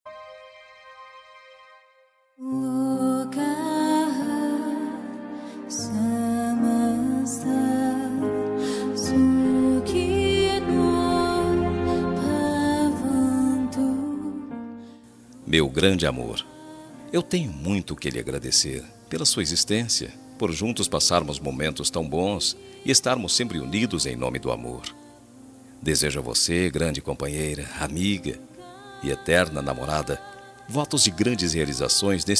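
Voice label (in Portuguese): birthday ESPOSA male, telemensagem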